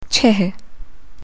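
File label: "chehe", Hindi, female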